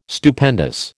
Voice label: "Stupendous", American

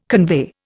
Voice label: "convey", Indian

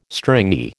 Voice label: "stringy", American

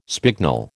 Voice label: "spignel", American

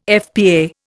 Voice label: "FPA", Indian

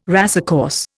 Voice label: "racecourse", Indian

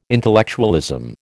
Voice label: "intellectualism", American

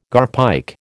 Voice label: "garpike", American

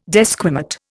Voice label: "desquamate", Indian

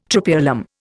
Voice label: "Tropaeolum", Indian

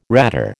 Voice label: "ratter", American

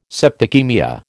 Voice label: "septicaemia", American

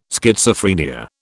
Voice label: "Schizophrenia", British

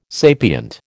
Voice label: "sapient", American

